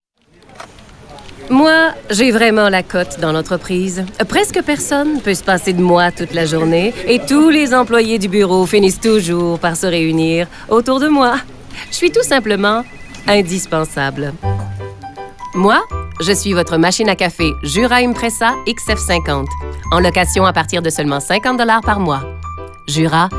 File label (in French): Café Pub fictive